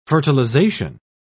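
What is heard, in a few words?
"fertilisation", British English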